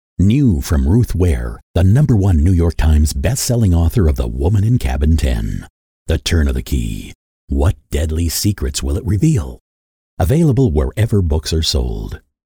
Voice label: english american movie trailers

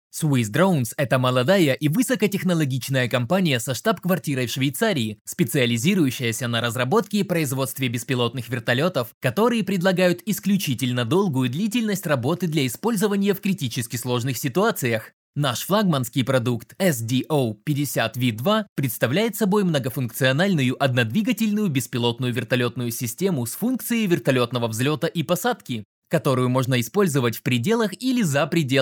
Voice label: russian promos

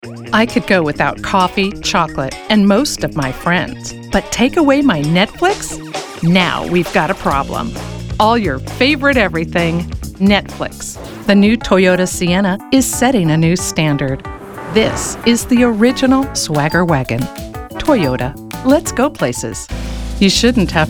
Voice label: english american commercial

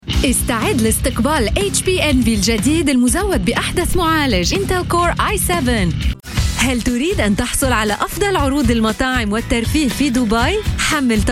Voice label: arabic promos

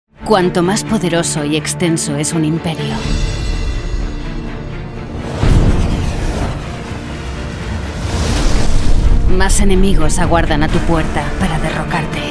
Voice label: spanish castilian movie trailers

